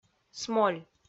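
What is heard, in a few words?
"смоль", Komi-Zyrian, female; kpv audio dict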